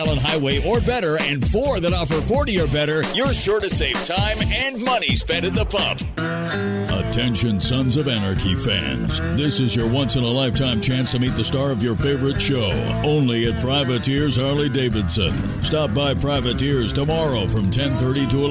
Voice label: Auto Dealer Male, Versatile, Consistent, Hard-Sell; Commercial Voiceover, Promo